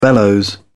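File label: "bellows", British English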